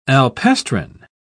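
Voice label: "alpestrine", British English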